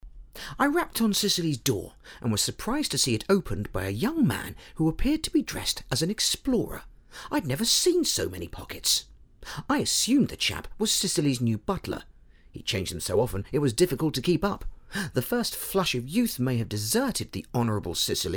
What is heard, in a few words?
Audiobook sample